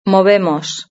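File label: "movemos", Spanish; verb-conjugator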